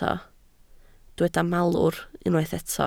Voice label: "malwr", Welsh